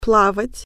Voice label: "swim", Russian